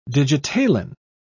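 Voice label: "digitalin", en, British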